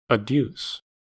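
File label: "adduce", US English; pronunciations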